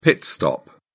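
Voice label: "pit stop", British English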